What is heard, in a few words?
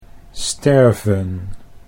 "sterven", Dutch; 'to die'